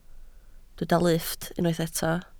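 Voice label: "lifft" Welsh, spoken word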